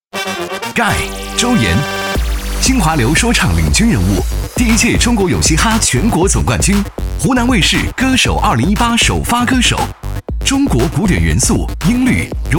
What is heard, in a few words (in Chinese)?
男国 人物介绍 年轻; GAI